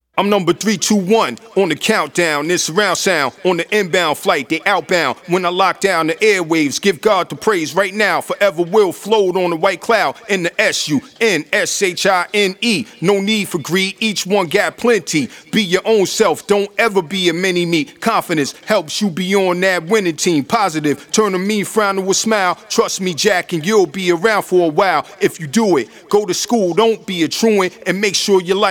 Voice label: rockin hip hop (acapella)